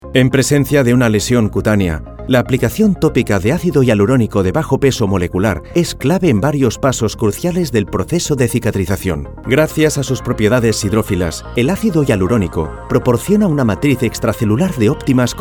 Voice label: spanish medical narration

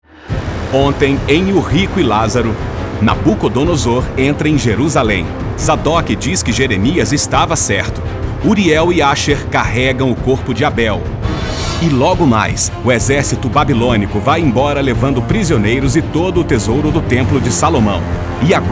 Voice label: portuguese brazilian tv shows

